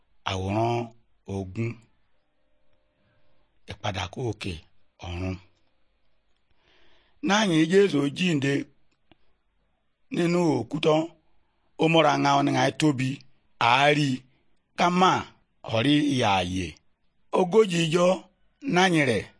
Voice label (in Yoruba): good news yoruba, bible